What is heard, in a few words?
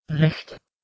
"rehta", Proto Germanic